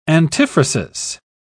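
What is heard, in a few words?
"antiphrasis", British English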